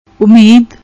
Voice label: "Umeed", Punjabi; ਉਮੀਦ